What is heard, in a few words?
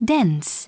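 "dense", English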